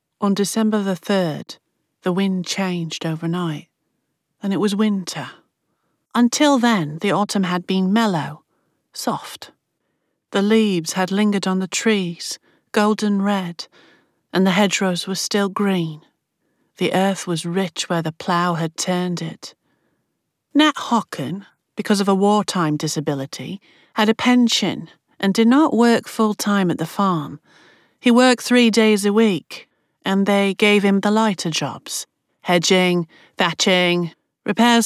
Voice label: Accent London Estuary